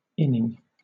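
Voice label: "inning", British English; pronunciations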